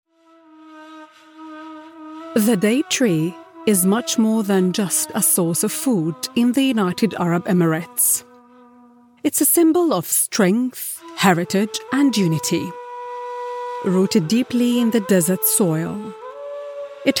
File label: female arabic english with soft arabic accent documentary; Devine Voices